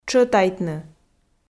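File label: "тшӧтайтны", Komi, female; audio dict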